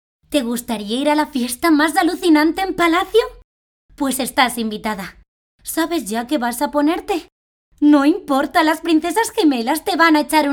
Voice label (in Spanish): voz, actriz de doblaje; publicidad